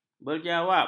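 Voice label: "berjawab", Malay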